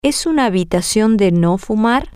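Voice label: is it a non smoking room, Spanish, female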